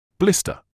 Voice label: "blister", medical english uk; ˈblɪs.tə; BLISS-tuh